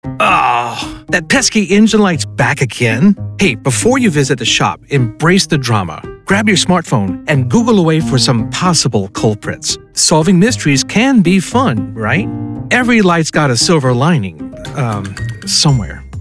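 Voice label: elearning, Male Voice Actor; check engine light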